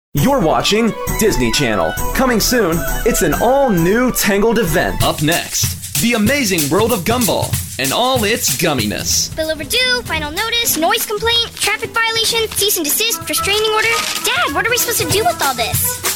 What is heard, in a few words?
promos